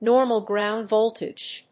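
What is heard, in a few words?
"normal ground voltage", English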